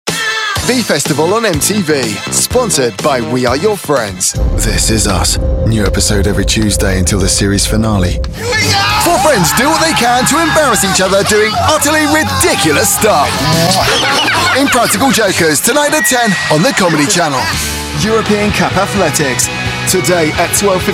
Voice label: british promos; Modern and fresh British guy next door who can turn up the excitement or get cool nā edgy on demand.

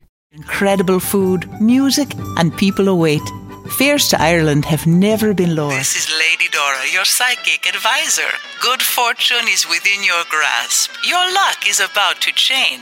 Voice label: women animation; Warm, friendly style.

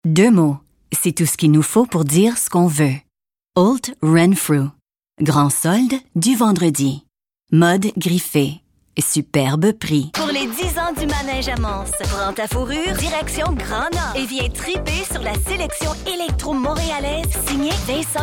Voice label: french canada commercial; FRENCH (CANADA) » English, French; smiling voice.

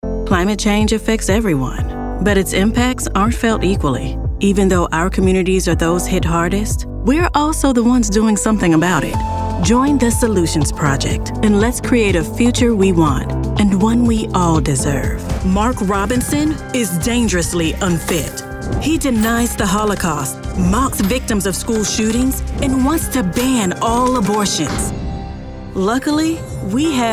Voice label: women political

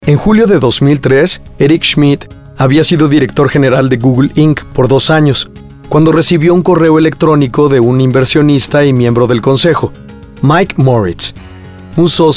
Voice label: spanish narration; Youthful, charismatic, Spanish neutral.

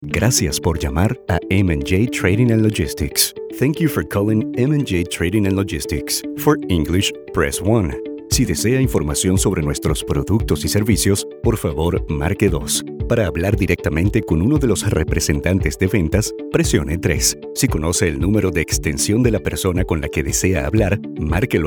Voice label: spanish, telephone

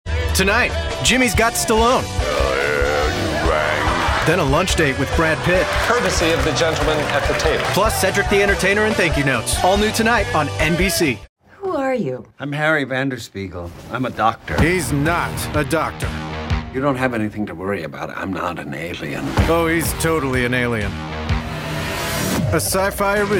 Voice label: men promos; Young and trustworthy. The guy next door with hip appeal.

